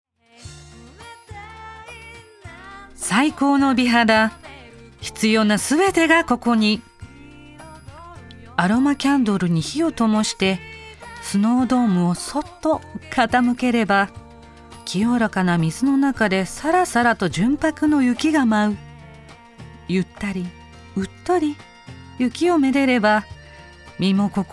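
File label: Narration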